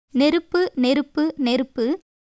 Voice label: Tamil